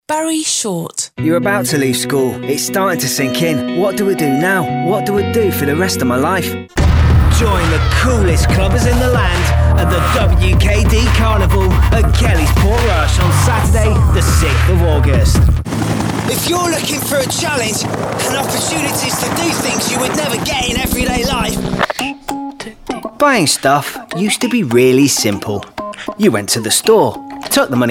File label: Commercials